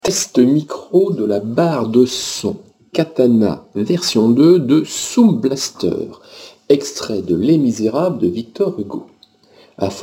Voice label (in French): Test Micro